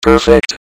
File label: "perfect", robot; Announcer